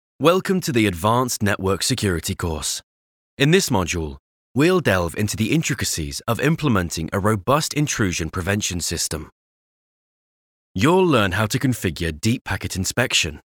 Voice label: Tech eLearning British